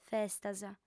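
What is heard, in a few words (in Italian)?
"festas", lingua sarda, female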